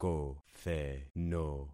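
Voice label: "kotheno", concatenated syllables; Affixing experiment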